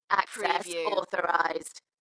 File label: UK female Access authorised Dalekness; beanstalk audio